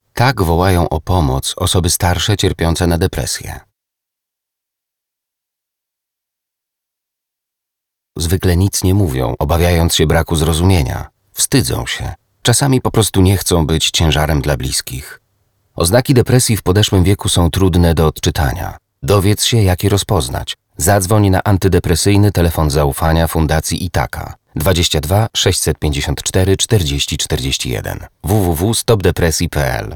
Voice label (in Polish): spot radiowy; srebrny odcien depresji